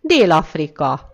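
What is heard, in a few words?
"south africa", Hungarian; gcompris; geography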